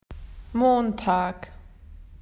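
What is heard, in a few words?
"Monday", Australian English; PictureDictionary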